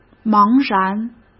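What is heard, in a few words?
"茫然", Mandarin Chinese; chinese-flashcards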